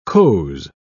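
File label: "coze", British English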